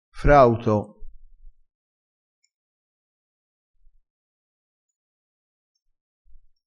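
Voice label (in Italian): "frauto", vocabolario dialettale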